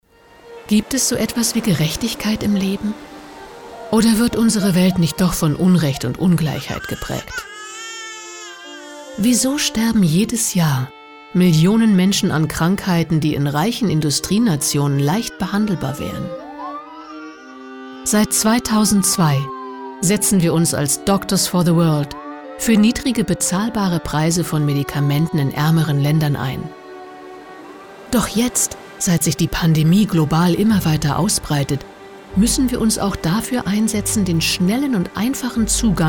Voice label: Imagefilm Dynamisch

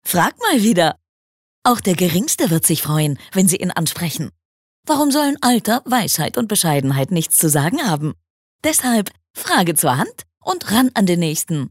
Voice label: Werbung Dynamisch

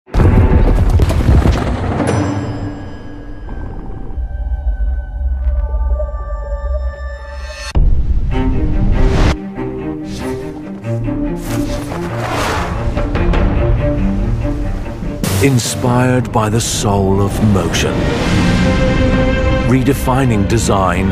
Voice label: Eng UK Werbung Kraftvoll

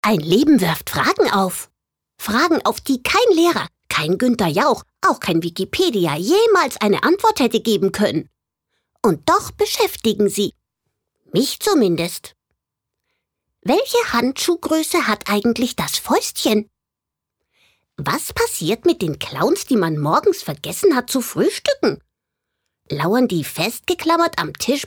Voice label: Charge Comic

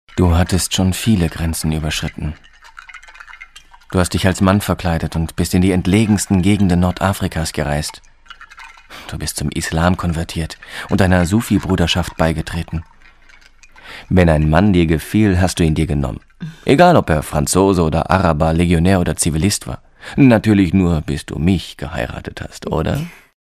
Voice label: Schauspiel Dynamisch

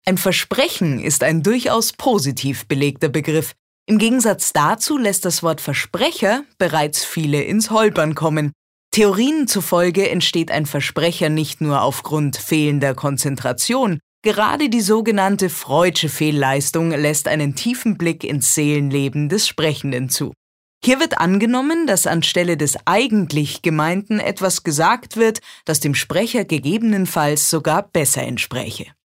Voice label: Versprecher Neutral

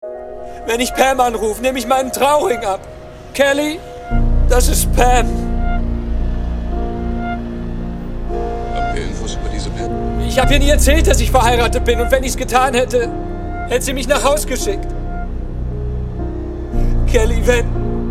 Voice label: Dramatisch

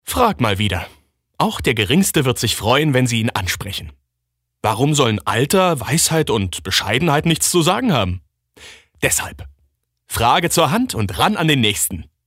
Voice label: Werbung Freundlich, voices

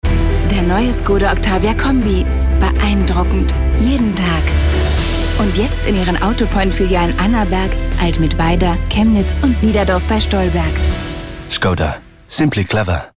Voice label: Werbung Freundlich

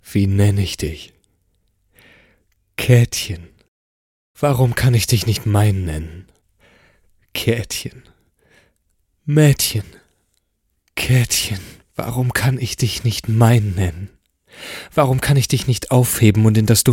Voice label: Emotional

